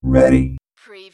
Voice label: Robot voice, "ready"; lynne publishing